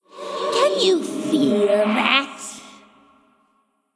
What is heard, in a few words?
taunt voodoo vo; ezili